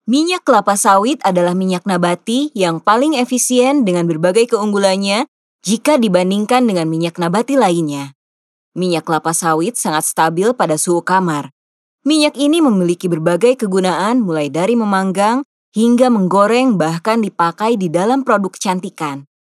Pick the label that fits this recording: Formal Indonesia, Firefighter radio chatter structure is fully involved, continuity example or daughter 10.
Formal Indonesia